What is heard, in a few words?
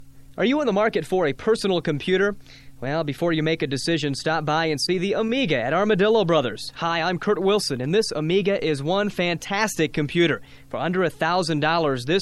Commercials; Armadillo Brothers